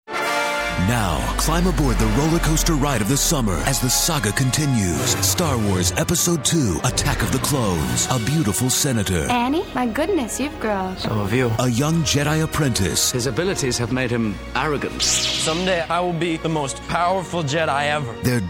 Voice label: radio